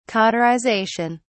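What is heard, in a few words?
"cauterization", British English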